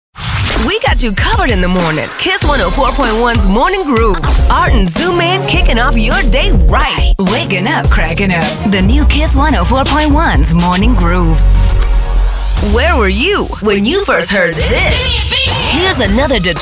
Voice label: ENGLISH URBAN AC IMAGING